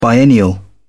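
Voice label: "biennial", English, British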